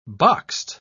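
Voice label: "boxed", English, British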